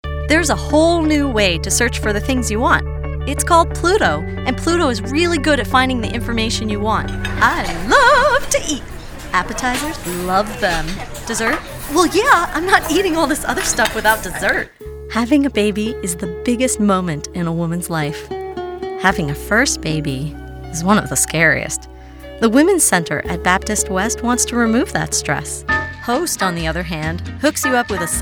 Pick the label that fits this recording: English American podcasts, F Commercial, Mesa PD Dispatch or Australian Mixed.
F Commercial